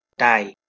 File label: "daeg", Anglo Saxon